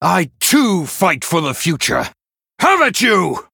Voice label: Male Human Idle